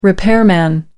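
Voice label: "repairman", English, British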